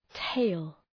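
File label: "tail", English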